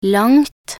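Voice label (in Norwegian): "langt", Norwegian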